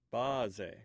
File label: "baze", Omaha